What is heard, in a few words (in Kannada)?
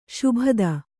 "ಶಾಬ್ದ", Kannada